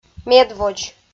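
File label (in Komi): "медводз", Komi, female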